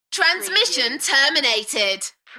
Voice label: UK female, Transmission terminated, Enthusiastic; beanstalk audio